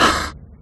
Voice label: Kit Female

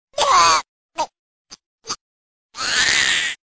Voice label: Baby Talk Sounds

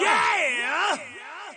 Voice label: "Yeah" Vocal

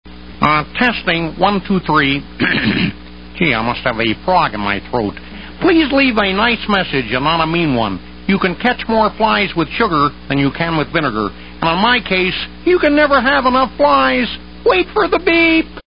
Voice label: Frog Ans Mach; Kermit

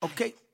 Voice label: "OK" Vocal

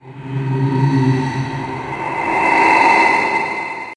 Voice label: Ambient Voices

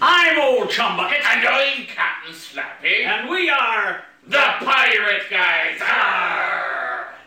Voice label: Pirate Talk Vocals

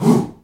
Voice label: Hoo Male African Vox

